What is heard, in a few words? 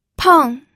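"peng", Mandarin Chinese, fourth tone; pinyin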